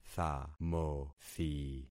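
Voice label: "thamothi", concatenated syllables; Affixing experiment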